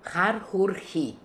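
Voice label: "Harhoory", pronunciations; Fourth Way; Beelzebub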